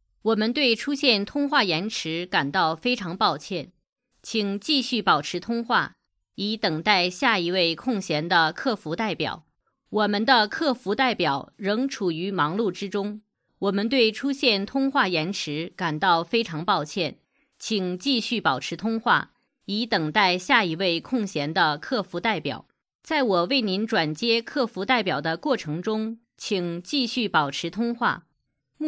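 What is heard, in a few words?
Chinese narration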